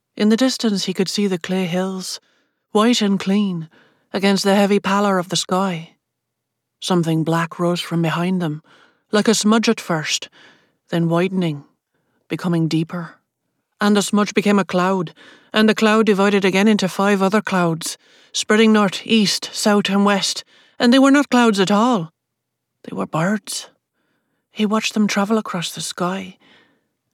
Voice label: Accent Demo Dublin Irish